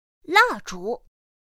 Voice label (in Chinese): "làzhú", pinyin; pinyin2; pinyinji